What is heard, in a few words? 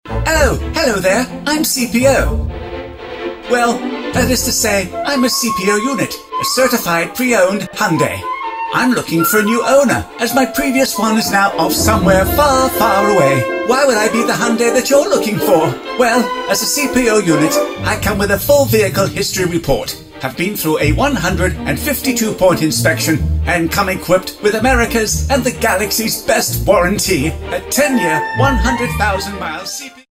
Character TV CM; Hyundai CP30